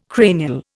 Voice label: "cranial", Indian